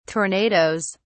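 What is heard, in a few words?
"tornadoes", British English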